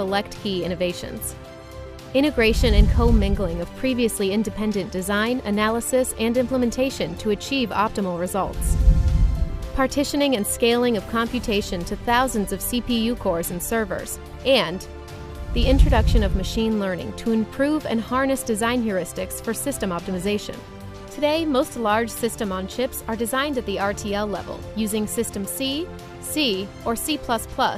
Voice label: voice over computational software